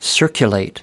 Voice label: "circulate", us

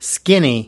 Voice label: "skinny", us

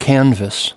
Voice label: "canvas", us

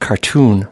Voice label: "cartoon", us

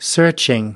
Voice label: "searching", us

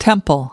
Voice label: "temple", us

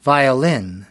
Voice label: "violin", us

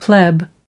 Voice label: "pleb", us

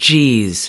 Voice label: "jeez", us

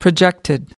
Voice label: "projected", us

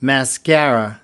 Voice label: "mascara", us

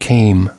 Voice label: "came", us

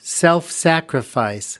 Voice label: "self sacrifice", us

